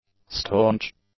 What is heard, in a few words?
"staunch", English; adjectives